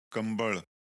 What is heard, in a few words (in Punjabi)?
"kambal", Punjabi